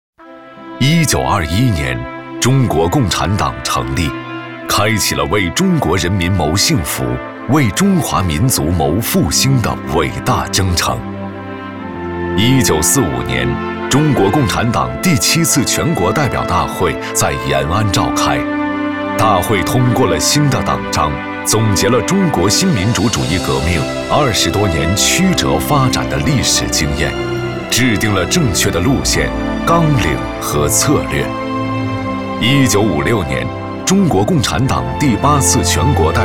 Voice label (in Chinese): 男 历史感纪录片